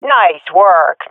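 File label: round won, swat female; vo; agents; Source Model Packs; Counter Strike Model Pack; CS2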